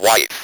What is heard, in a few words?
ROB VOX